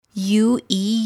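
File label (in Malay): "u e", Malay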